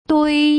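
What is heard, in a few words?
"dui", Putonghua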